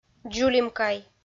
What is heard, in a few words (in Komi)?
"дзулимкай", Komi